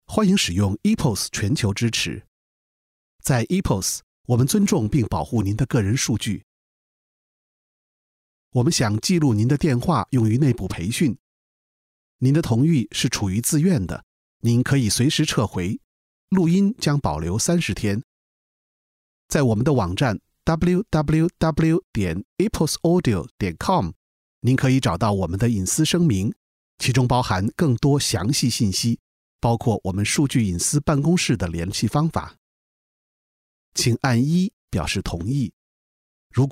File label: Chinese Mandarin IVR